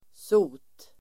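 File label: "sot", Swedish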